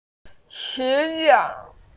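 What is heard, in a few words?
"shi2 yue4", Mandarin Chinese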